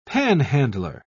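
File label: "panhandler", British English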